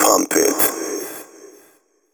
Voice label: techno male 140; Vocals